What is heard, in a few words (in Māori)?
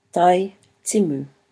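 "tai timu", Māori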